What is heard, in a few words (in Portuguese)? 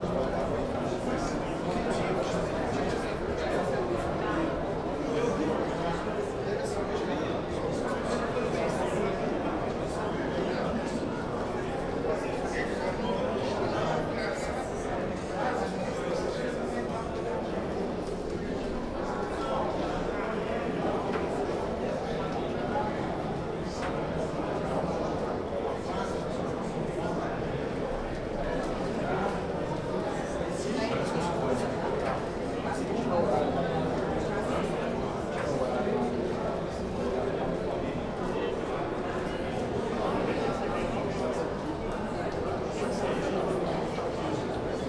Vozes predominantemente masculinas no corredor; multidao; Colecao Sonora do Cerrado